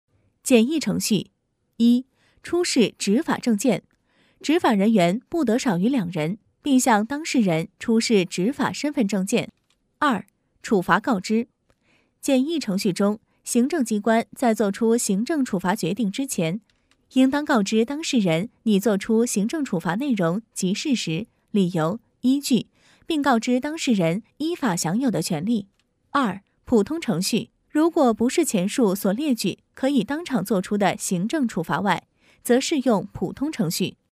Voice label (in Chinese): 女-有声课件 机关部门-正式严肃